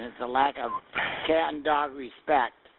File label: confused